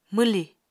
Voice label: "mwlì", Apatani